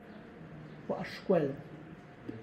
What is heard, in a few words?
I went to school, Tlacochahuaya Zapotec; gua' xhuel